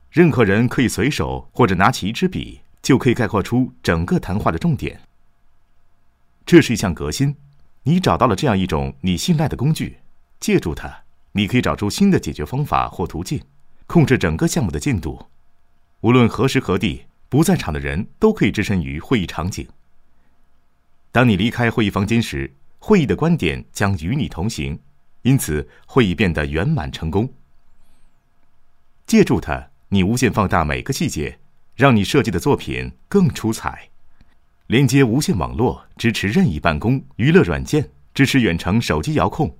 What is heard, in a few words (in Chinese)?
广告 vision board 轻松广告类, 国语男声配音; 温情人物专题